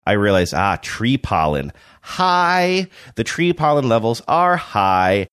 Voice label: saying i realize tree pollen high sing songy manner